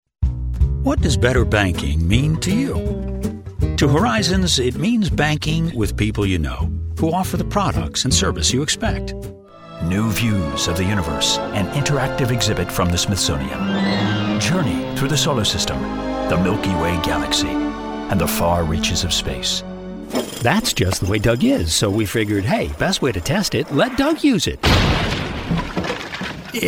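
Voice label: commercial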